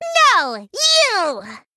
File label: Female Goblin Attack